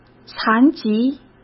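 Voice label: "残疾", Mandarin Chinese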